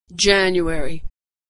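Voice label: "january", American English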